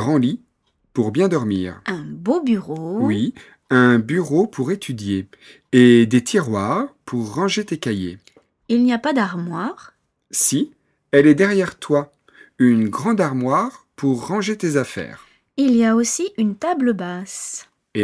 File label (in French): dialogue chambre